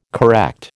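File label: "correct", American English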